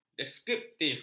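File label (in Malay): "deskriptif", Malay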